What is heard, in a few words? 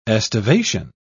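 "estivation", British English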